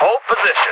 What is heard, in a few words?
Dispatch; Mirror's Edge (2008); Unused Assets; New Eden